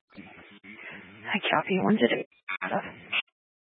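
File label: Sheriff Dispatch, Scanner audio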